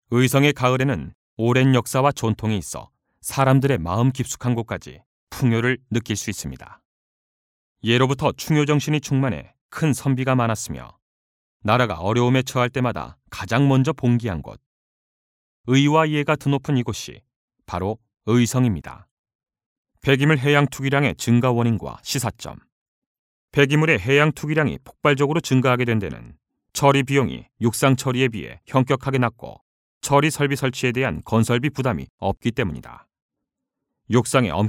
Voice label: Korean Male; Multilingual Voiceover